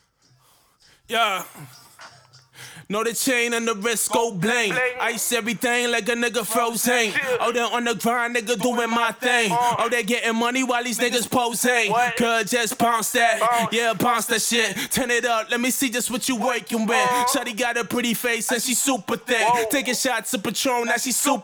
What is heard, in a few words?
acapella, hip hop 100 Bm